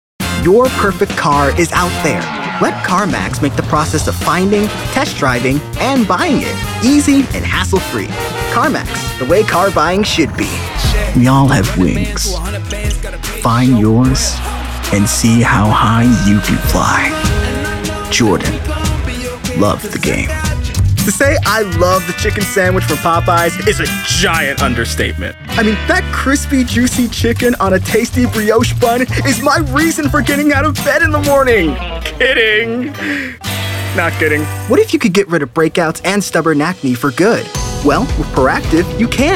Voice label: Commercials